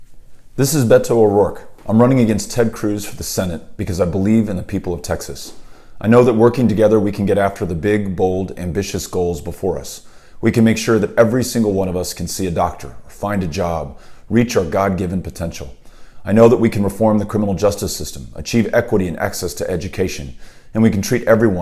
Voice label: Radio Spot